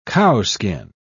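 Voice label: "cowskin", British English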